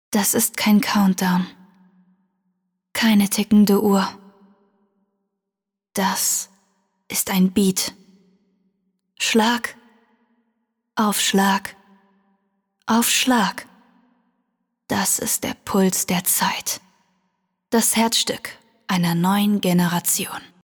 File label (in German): Spot, Werbung, Off, Commercial (Werbung), German